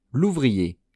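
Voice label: "louvrier", French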